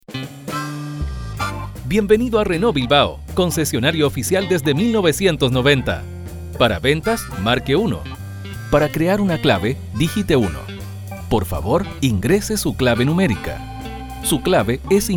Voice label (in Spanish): VO Locutor IVR mix